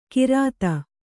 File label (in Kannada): "ಘ್ರಾತ", Kannada